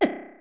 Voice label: Hurt Reverb; Open Zelda; Quest Designer 2.0.4b; Damage; Link